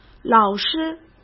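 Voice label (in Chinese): "老师", Mandarin Chinese; chinese-flashcards; hsk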